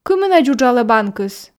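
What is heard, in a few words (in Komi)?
кымынӧдз уджалӧ банкыс, Komi, female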